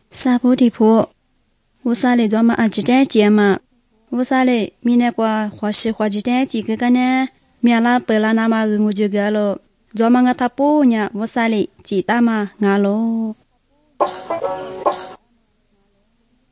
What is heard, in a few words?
good news lisu southern, drama